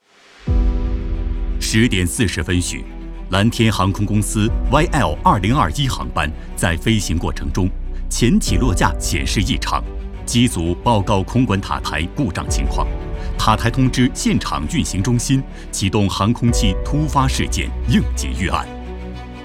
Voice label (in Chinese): 男 专题解说 应急救援 紧迫 正式